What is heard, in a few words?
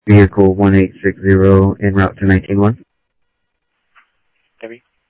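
Mesa Police Department, scanner audio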